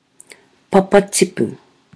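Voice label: "papatipu", Māori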